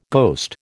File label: "ghost", American